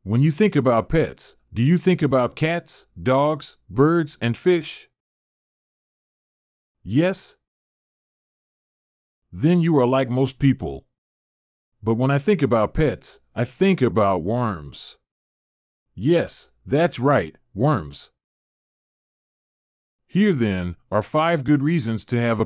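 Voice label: American; pet worm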